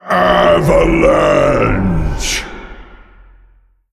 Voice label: Male Elemental Play

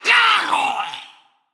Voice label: Female Forsaken Death

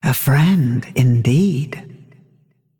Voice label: Female Dragon MIRROR GREETINGS

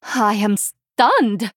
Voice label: Female Draenei Wow

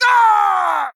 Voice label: Male Chess Piece Death

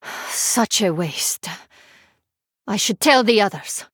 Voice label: Female Draenei; Story Hero Xyrella; BOM Tamsin Mission6 Loss

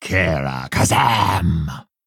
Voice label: Male Orc Response; GULDAN

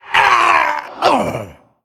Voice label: Male Skeleton Death

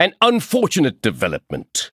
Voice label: Male Chess Piece Chess Emote Sorry

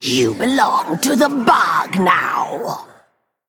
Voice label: Female Elemental Play

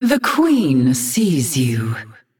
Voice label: female naga; Azshara

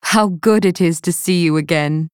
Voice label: Female Night Elf New Game

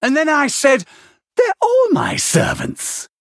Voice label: Male Venthyr Play

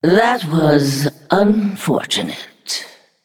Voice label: Female Naga Sorry

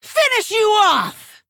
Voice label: Female Orc trigger execute

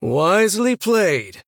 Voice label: Male Human Well Played

